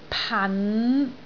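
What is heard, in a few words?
"pan5", Cantonese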